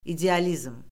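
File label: "idealizm", Azerbaijani; Orfoqrafiya Lüğəti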